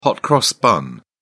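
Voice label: "hot cross bun", British English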